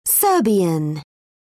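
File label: "serbian", British English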